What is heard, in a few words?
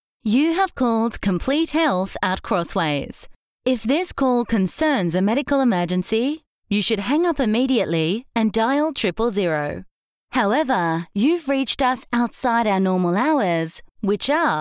english australian ivr